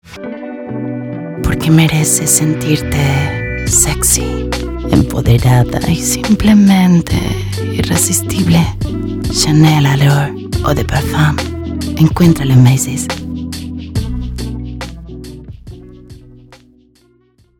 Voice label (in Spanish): atrevido sexy